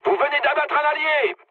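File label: player killed ally, mood low, French; War Thunder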